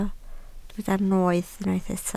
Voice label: "noeth", Welsh

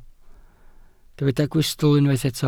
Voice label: "gwystl", Welsh

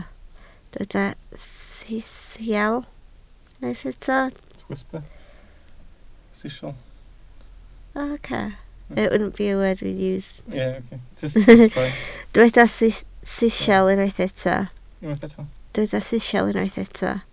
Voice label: "sisial", Welsh

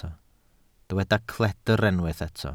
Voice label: "cledr", Welsh

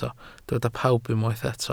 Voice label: "pawb", Welsh

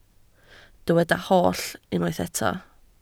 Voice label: "holl", Welsh

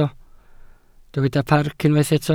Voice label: "parc", Welsh

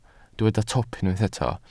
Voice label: "twp", Welsh

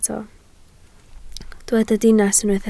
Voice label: "dinas", Welsh

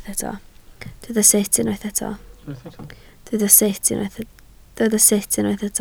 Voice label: "sut", Welsh

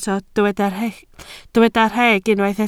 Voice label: "rheg", Welsh

